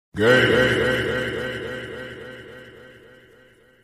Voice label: gaay reverb